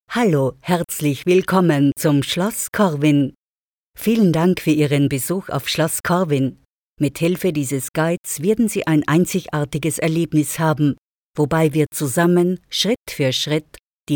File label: german austrian audio guides